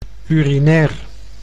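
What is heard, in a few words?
"urinair", Dutch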